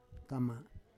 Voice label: "kama", Roon